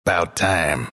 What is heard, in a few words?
announcer disconnect reconnected; dlc bastion